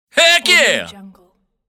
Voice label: hillbilly heck yeah